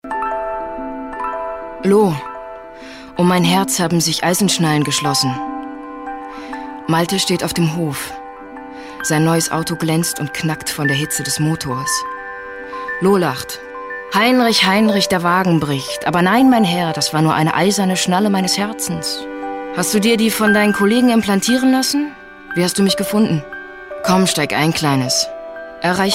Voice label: Schauspiel, Neutral

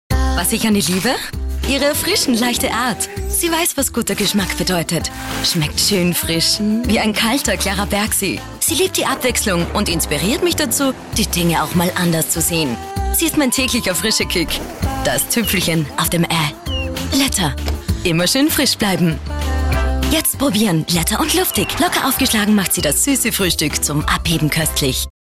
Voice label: AT Freundlich; Fremdtake

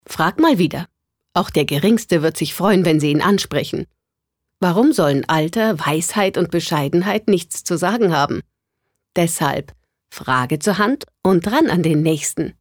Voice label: Werbung Neutral

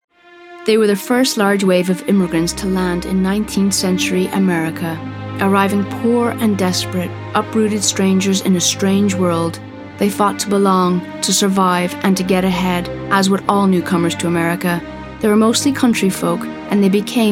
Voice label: Eng UK Kommentar Sanft

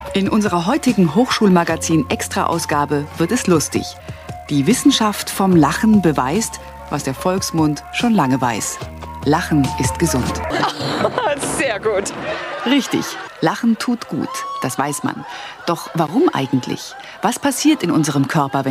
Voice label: Kommentar Dynamisch

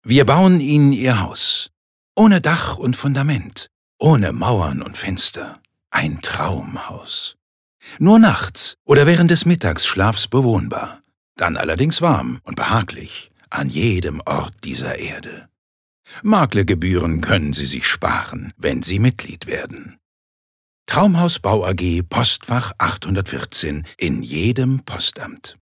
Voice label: Werbung Freundlich

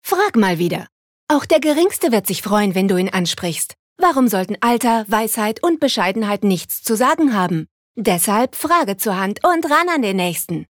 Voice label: Werbung Frech

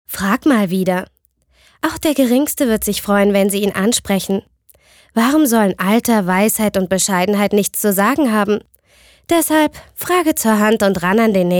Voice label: Werbung Cool

